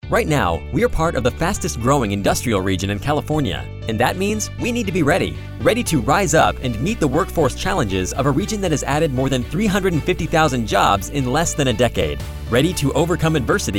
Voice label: Radio Spot Male; Inland Empire 2022